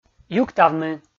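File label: "юктавны", Komi, male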